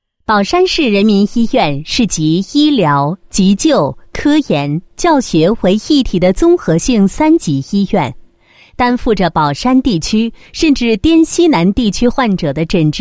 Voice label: 女 医院; 某市人民医院迁建项目二期工程

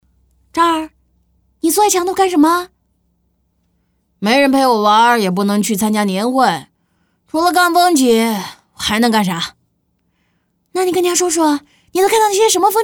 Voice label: 女 模仿 角色; 哪吒

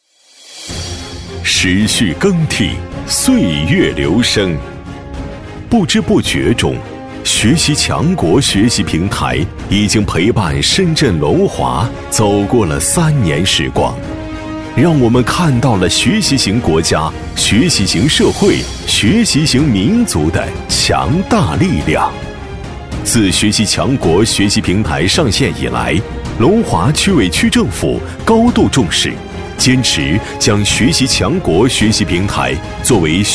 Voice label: 男 专题 工作综述 大气稳重

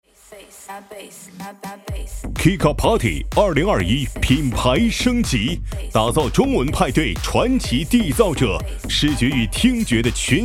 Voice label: 男 广告 酒吧; KIKA

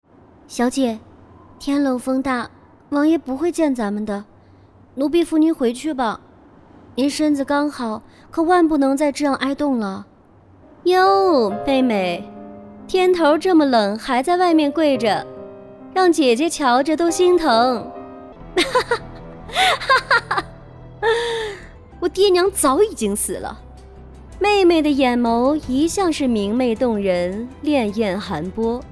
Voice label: 女 角色 坏女 婢女

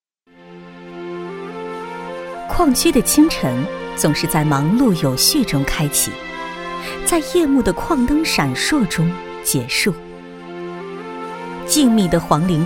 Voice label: 女 专题 矿区的清晨

